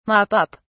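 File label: "mop up", British English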